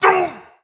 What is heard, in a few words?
german; Spec Ops 2